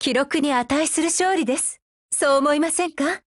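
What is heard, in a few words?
WIN Japanese, Voice; GF Alarm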